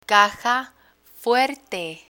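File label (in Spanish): "caja fuerte", Spanish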